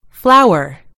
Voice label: "flower", en GB; gcompris

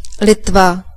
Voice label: "lithuania", cs; gcompris; geography

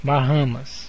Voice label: "bahamas", pt_BR; gcompris; geography

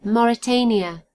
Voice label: "mauritania", en GB; gcompris

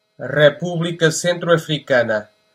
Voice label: "central african republic", pt; gcompris; geography

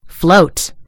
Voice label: "float", en GB; gcompris

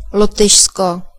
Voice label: "latvia", cs; gcompris; geography

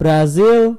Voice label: "brazil", id; gcompris-data; geography

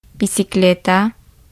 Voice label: bike, es; gcompris

